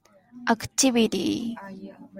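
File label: "activity", Japanese, female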